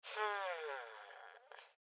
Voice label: Voices Loot Spotted Female Old; Rebel Cops